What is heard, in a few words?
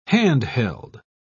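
"hand-held", British English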